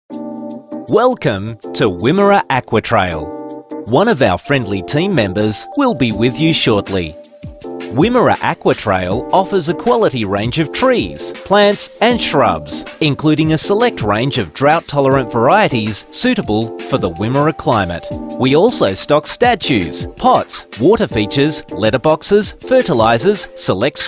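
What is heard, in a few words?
aussie aus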